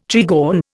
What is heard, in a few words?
"trigon", Indian